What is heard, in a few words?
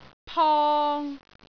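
"pong3", Cantonese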